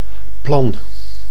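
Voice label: "plan", Dutch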